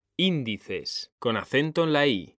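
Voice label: "índices", Spanish, male; mekanta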